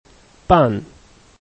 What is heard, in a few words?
"ban4", Chinese